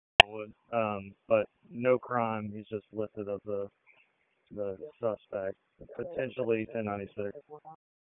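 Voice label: Police Northeast District Dispatch, p25; Raleigh Police Northeast District Disp; wake p25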